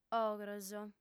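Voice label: "ogros", female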